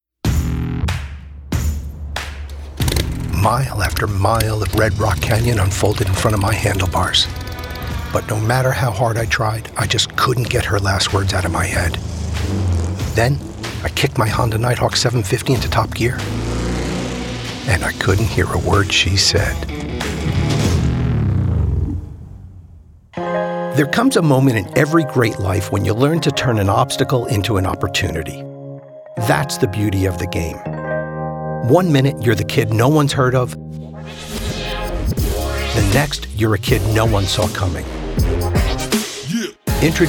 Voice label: Commercial